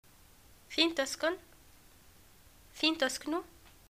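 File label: "getacqua where do u live", Tunisian Arabic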